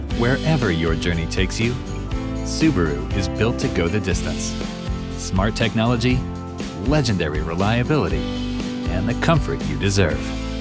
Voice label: Corporate; Subaru